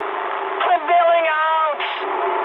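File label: English bailout mood high; War Thunder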